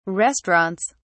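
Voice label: "restaurants", British English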